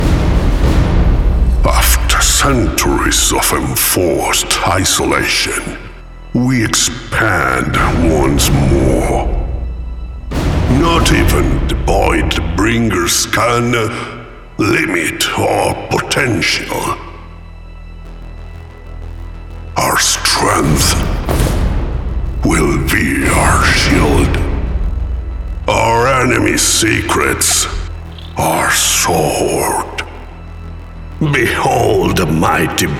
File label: english video games